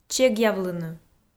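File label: "чегъвлыны", Komi, female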